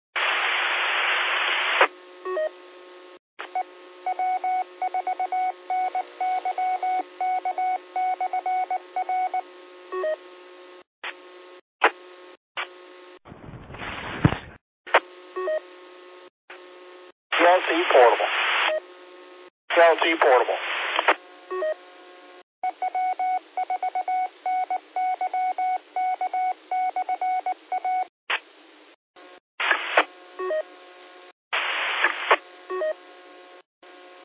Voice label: qso rec simplex logic